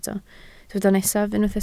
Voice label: "nesaf", Welsh

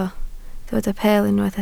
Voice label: "peel", Welsh

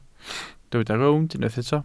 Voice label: "rownd", Welsh

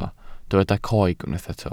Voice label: "coeg", Welsh

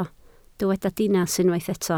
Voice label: "dinas", Welsh

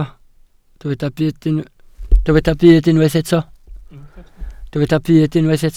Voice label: "byd", Welsh

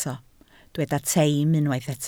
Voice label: "teim", welsh

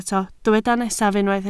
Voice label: "nesaf", Welsh

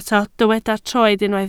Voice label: "troed", Welsh

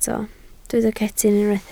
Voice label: "cetyn", Welsh

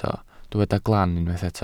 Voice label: "glan", Welsh

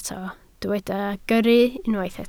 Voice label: "gyrru", Welsh

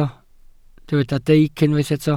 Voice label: "deic", welsh

